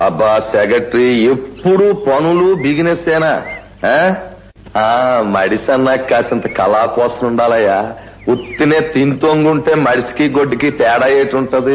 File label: Telugu Funny Ringtone